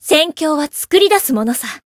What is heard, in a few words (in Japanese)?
Voice win, jp; isuka